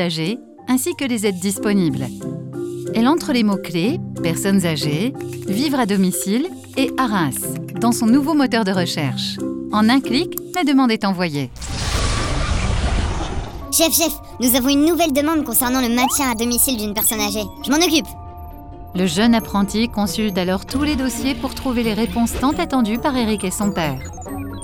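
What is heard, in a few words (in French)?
motion video film voix off, voix off femme; wikisol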